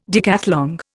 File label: "decathlon", Indian